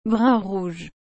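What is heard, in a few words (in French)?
"brun rouge", French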